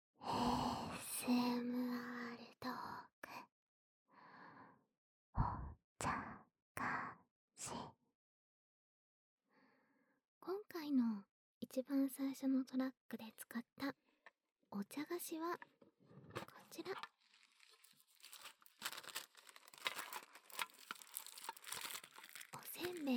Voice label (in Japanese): 反転 ASMRトーク 麩焼きせんべい